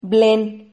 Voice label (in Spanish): "blen", Silabas